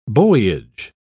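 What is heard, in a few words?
"buoyage", British English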